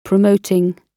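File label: "promoting", British English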